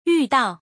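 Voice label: "yu4dao4", Chinese; yùdào; 遇到; （偶然）～出会う、直面する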